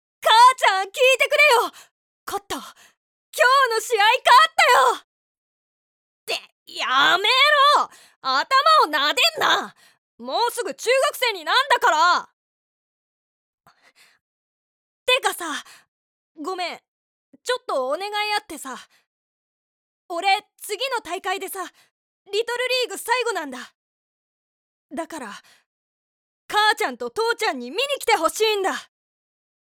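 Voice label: voice sample, boy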